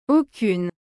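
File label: "aucune", French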